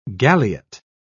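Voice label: "galiot", British English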